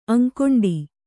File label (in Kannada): "ಅಂಕೊಂಡಿ", kannada